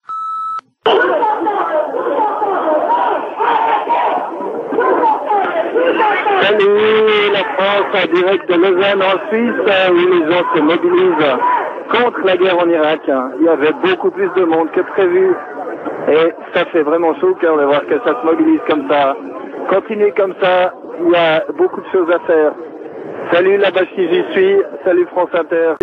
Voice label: Manif anti guerre repondeur

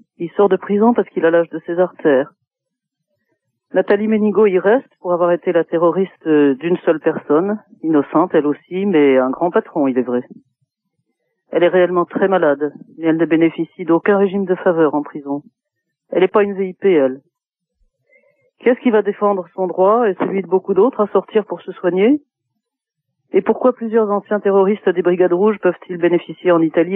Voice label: Liberation Papon repondeur

